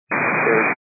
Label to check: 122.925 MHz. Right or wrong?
Right